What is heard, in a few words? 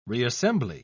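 "reassembly", British English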